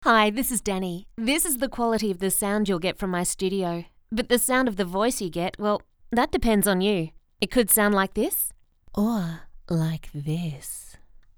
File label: Studio Quality